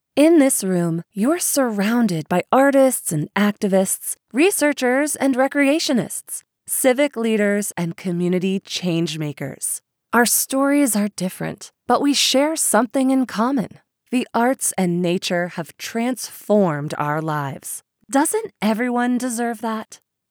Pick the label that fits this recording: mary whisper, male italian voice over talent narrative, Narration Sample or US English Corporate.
Narration Sample